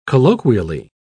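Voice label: "colloquially", British English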